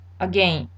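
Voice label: "again", Japanese speaker of English, female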